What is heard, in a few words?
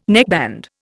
"neckband", Indian